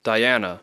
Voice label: "Diana", English

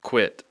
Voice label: "quit", English

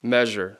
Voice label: "measure", English

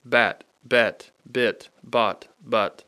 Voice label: bat bet bit bot but, Rapid Sound Practice; Speech Pro

